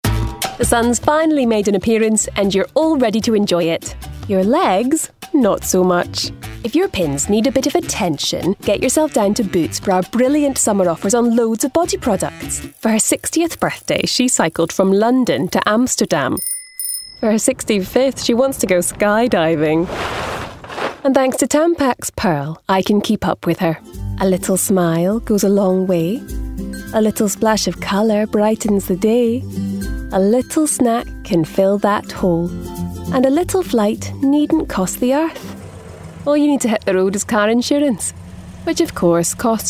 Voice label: Commercial Voice